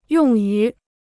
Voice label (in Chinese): "用于", Chinese